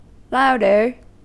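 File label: "louder", US English; pronunciations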